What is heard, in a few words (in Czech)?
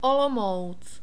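"olom", czech, male; diphthongs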